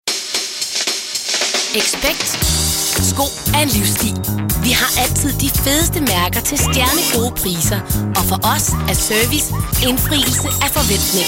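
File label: Danish commercial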